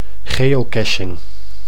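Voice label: "geocaching", Dutch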